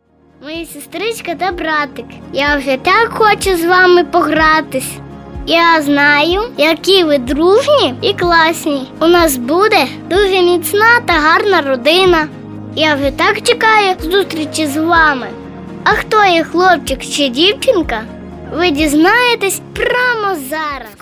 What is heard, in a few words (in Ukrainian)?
ukrayinskoyu; avtorska pisnya